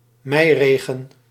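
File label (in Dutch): "meiregen", Dutch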